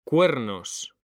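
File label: "CUERNOS", Spanish; mekanta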